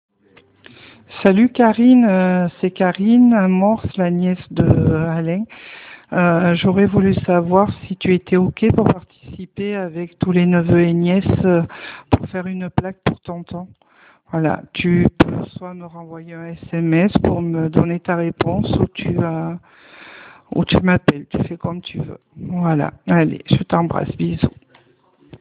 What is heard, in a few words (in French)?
Message vocal